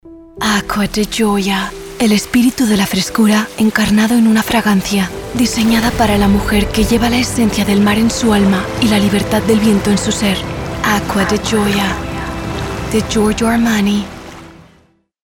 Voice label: ENG Castilian Soft Captivating; Aqua